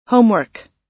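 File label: "homework", US English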